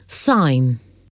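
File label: "sign", English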